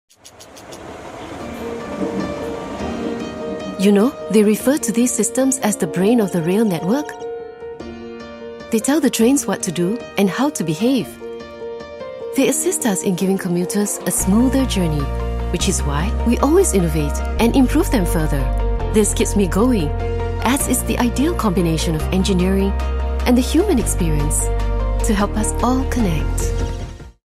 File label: Asian English Recruitment; SMRT